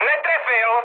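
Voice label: bomb failure, Czech, mood med; speech; War Thunder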